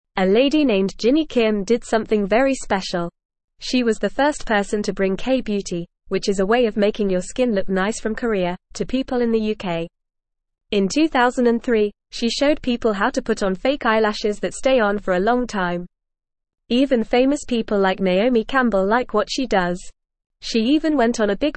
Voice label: English Beginner FAST Reading; English Newsroom; Jinny Kim Bringing K Beauty to the UK

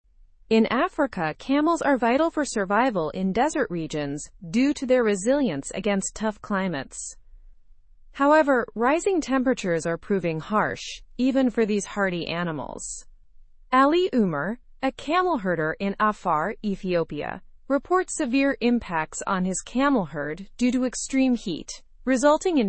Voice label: english through news upper intermediate; africa s camels struggle with climate change

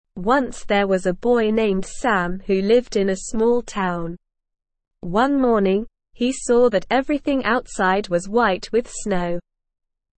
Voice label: ESL Short Stories for Kids Beginner SLOW Reading; Sams Snowy Day

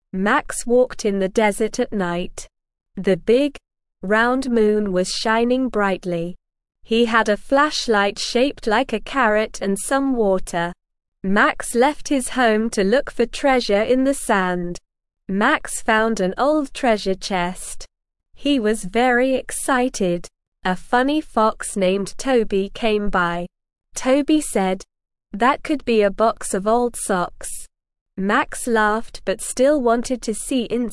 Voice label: ESL Short Stories for Kids Beginner SLOW Reading; The Adventure in the Moonlit Desert

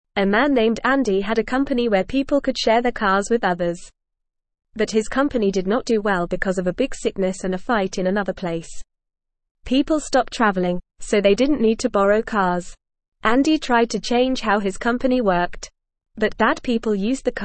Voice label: English Newsroom Beginner FAST Reading; Andys Car Sharing Company Faces Big Problems

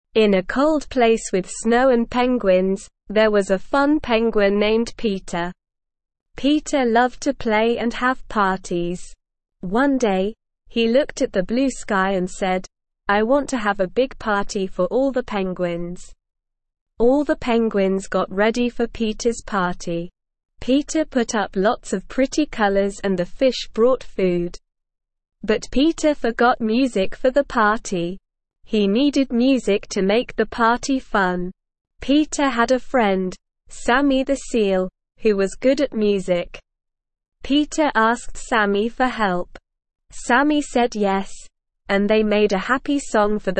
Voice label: ESL Short Stories for Kids Beginner SLOW Reading; The Playful Penguins Party

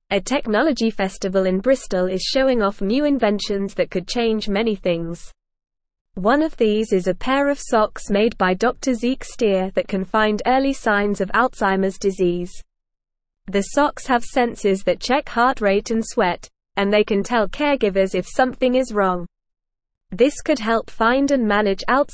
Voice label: English Lower Intermediate NORMAL Reading; Exciting Tech Party in Bristol Cool Ideas Reveale; English Newsroom

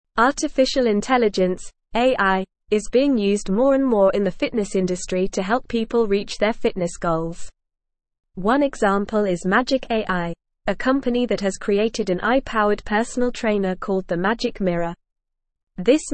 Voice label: English NORMAL Reading; English Newsroom; AI in Fitness Personalized Training or Human Conn

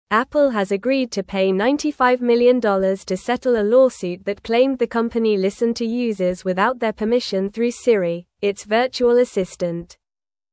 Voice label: English Upper Intermediate NORMAL Reading

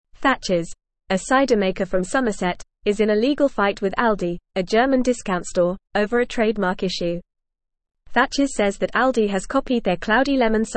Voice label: English Newsroom Upper Intermediate FAST Reading; Thatchers vs Aldi Trademark dispute over cider

